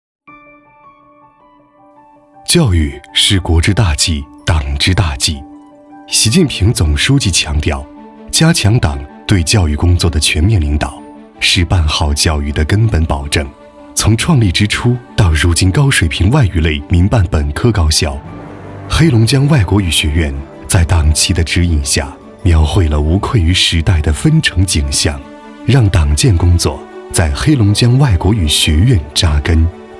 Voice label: 男 宣传片 品质大气; 黑龙江外国语学院

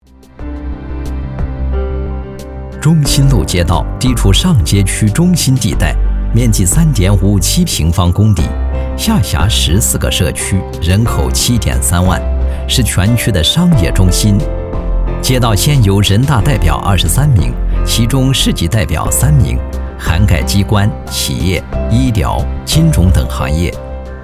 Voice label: 男, 专题; 为民履职守初心

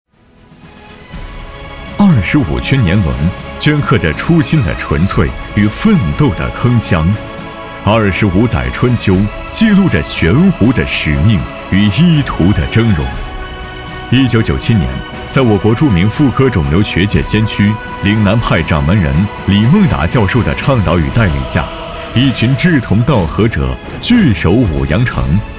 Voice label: 男 专题解说 岭南派医生

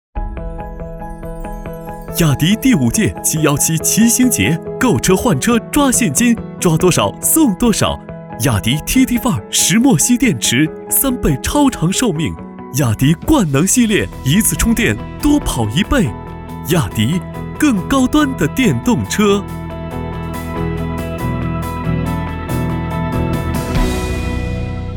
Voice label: 男 广告 加音乐; 雅迪

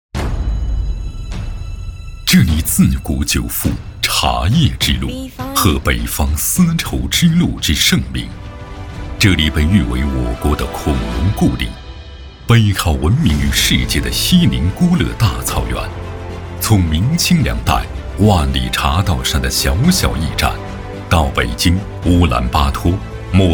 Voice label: 男 专题 党政 片 大气厚重; 二连浩特

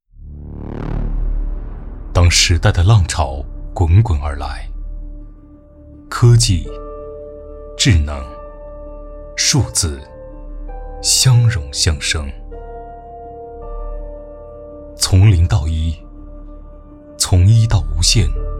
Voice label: 男, 力量走心; 中国数谷

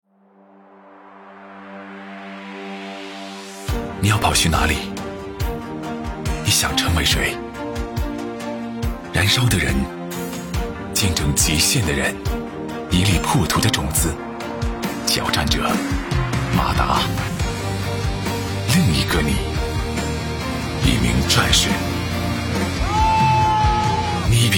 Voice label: 男,形象片 励志; 咪咕善跑

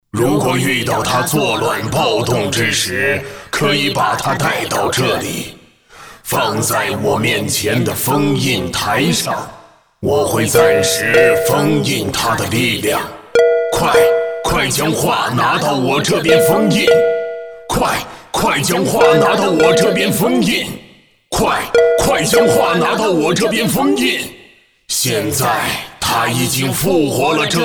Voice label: 男 模仿 密室 前序

